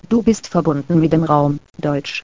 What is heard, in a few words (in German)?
Deutsch